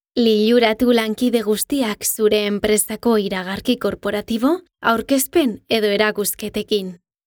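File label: corporate Basque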